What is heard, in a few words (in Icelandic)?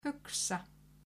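"hugsa", Icelandic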